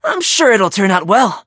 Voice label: battle en; cookie run

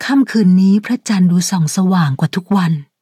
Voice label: level up, th; cookierunwiki

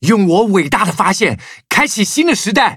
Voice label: upgrade, zh-hant; Cookie Run

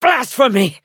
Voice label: hit, en; Cookie Run

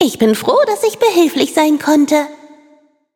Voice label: victory, de; cookierunwiki

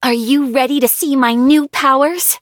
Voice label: levelup, en; cookie run

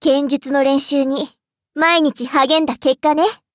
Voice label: levelup ja; cookie run

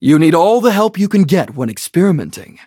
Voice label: level up, en; Cookie Run

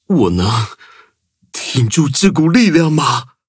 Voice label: levelup zh-hant; Cookie Run

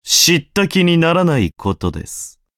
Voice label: levelup, ja; cookie run

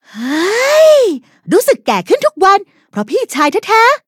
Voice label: level up, th; cookie run

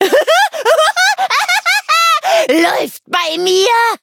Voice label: levelup de; cookie run

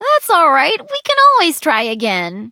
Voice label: defeat, en; cookie run

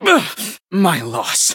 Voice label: hit, en; cookie run

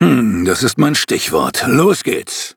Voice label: level up, de

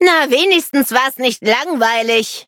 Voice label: defeat, de; cookierun

